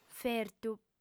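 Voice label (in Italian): "fertu", lingua sarda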